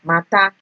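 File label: "matter", Nigerian Pidgin, female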